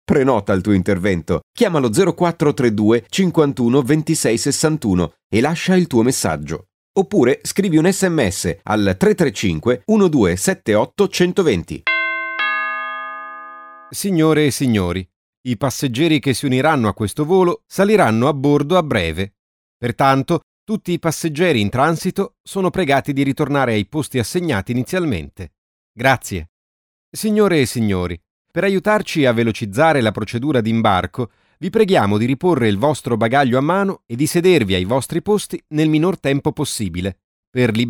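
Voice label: Italian male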